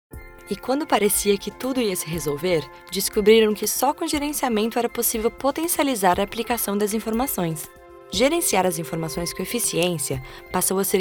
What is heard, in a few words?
Female Brazilian Portuguese Corporate; Compilation; deVine Voices